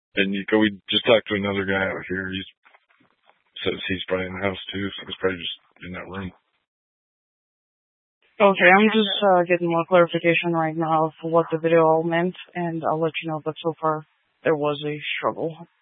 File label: Mesa County Sheriff Dispatch